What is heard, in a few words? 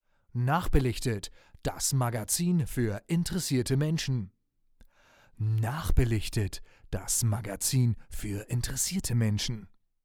dry speech; tbone sct 800